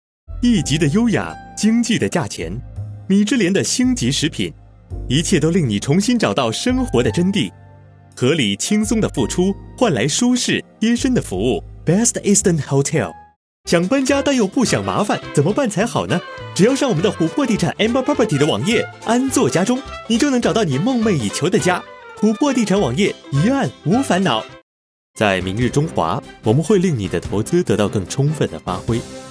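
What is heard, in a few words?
Mandarin, TV commercial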